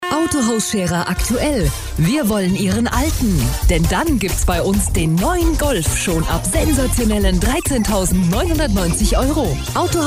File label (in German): funk spot autohaus